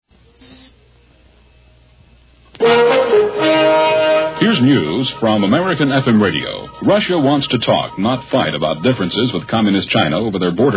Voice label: newscast; American FM Nework